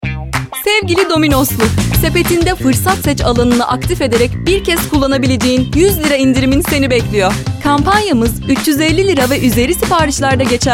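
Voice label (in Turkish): reklam, Turkish; dominos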